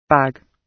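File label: "bag", British English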